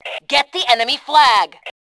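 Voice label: "attack flag", Fem2; Tribes2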